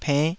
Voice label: "pennh5", Taiwanese

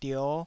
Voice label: "dio5", Taiwanese syllable

